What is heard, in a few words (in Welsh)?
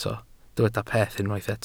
"peth" Welsh